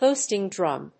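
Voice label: "hoisting drum", English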